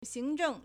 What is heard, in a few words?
"xing2zheng4", Chinese